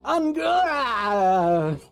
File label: engardargh, sfx